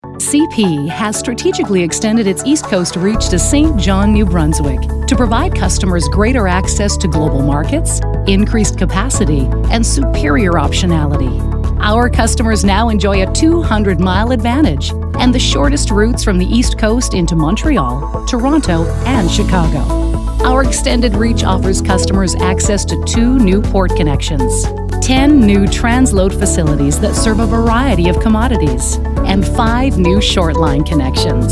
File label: Narration; Married With Mics; CP Rail Travel transportation vacation shipping railway train